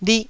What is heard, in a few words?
"lih1", Taiwanese syllable